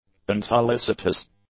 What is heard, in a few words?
"unsolicitous", english; adjectives